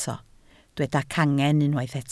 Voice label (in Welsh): "cangen", Welsh